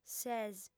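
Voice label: "ses", female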